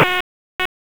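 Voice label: Raleigh Police Northeast District Dispatch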